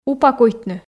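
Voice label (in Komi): "упакуйтны", Komi, female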